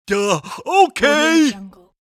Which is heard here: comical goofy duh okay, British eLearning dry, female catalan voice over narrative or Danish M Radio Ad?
comical goofy duh okay